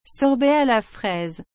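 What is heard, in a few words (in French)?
"sorbet à la fraise", French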